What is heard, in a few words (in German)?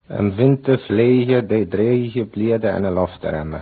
hochpreussisch